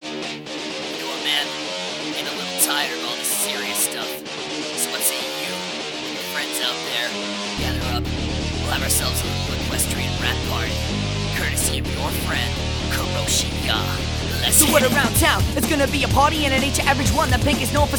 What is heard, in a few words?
Rap